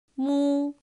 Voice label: "mū", Chino

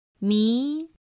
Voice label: "mí", Chino

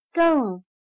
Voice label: "更", Chino

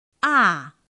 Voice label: "à", Chino

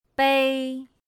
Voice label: "bēi", Chino

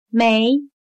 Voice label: "眉", Chino

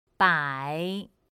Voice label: "bǎi", Chino

